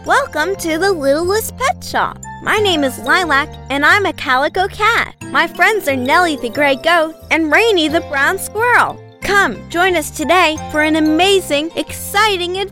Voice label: Animation

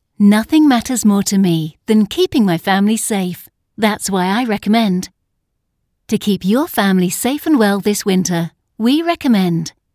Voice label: English mother sample

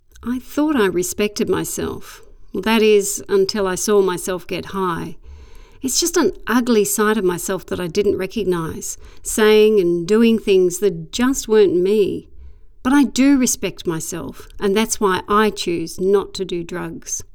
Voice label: Public Service Announcement

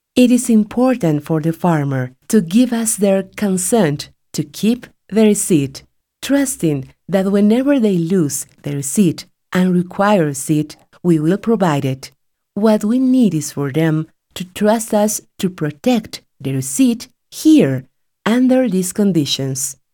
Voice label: ENGLISH

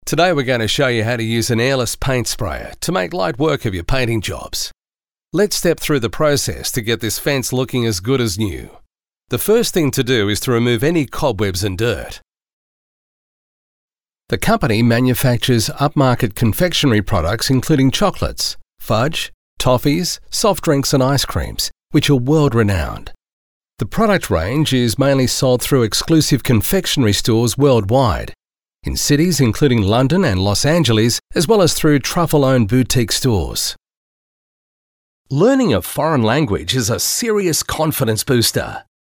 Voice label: Narration Explainer; Studio

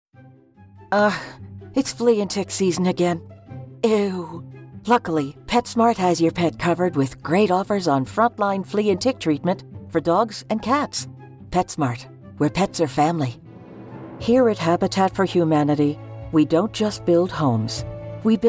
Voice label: Commercial, voiceover

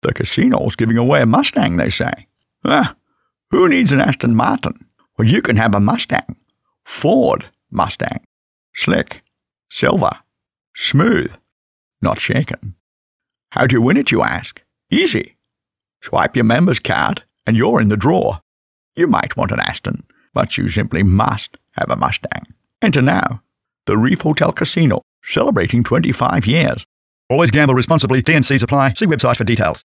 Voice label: Celebrity character Casino TVC

